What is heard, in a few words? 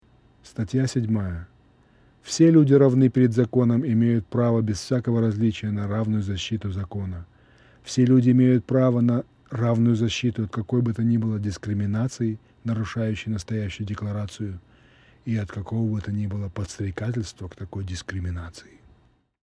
Russian